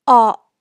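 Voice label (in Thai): "เอาะ", Thai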